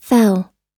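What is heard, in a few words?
"fell", American English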